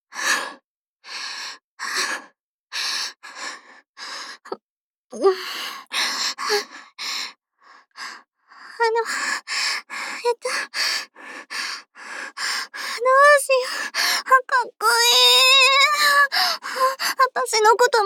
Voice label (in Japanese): voice stem, ASMR; SEなし; 【オホ声/汚喘ぎ/NTR】「先輩、アタシと別れてください 」 彼女が弟のチャラ雄チ●ポに敗北してマゾメスチンハメおま●こ奴隷になった話